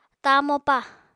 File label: "tamopa", Apatani